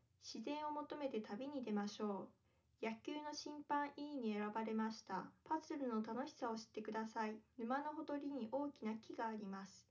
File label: female, live rec